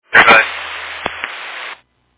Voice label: Fire Dispatch